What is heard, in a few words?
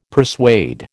"persuade", American